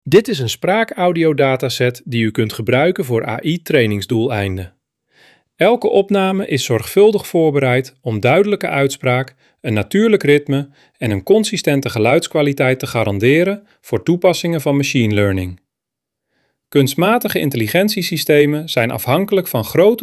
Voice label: Dutch, Speech; Dutch-Speech-Dataset